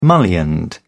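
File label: "mullioned", British English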